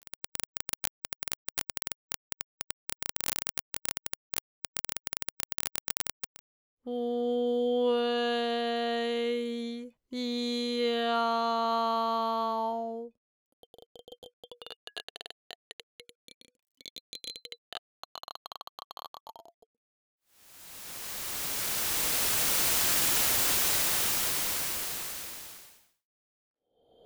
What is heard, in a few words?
Technique Fry Texture synthesis